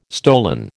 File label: "stolen", American